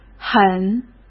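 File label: "狠", Mandarin Chinese; hsk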